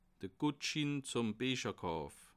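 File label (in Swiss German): "guetschin", Bas Rhin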